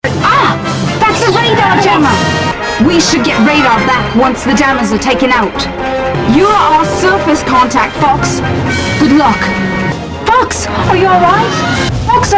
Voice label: English; Assault; Corneria